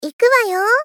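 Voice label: Gentle, Critical, "Iku wayo", Japanese female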